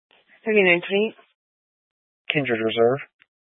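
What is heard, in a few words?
Incident Tactical, scanner audio recording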